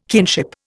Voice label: "kinship", Indian

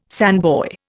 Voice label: "sandboy", Indian

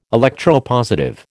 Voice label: "electropositive", American

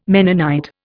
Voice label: "Mennonite", Indian

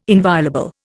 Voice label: "inviolable", Indian

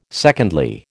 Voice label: "secondly", American

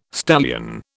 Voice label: "stallion", British

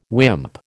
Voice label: "wimp", American

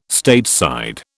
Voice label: "Stateside", British

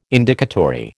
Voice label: "Indicatory", American

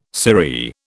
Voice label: "sirree", British

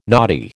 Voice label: "knotty", American

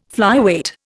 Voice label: "flyweight", Indian

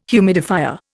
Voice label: "humidifier", Indian

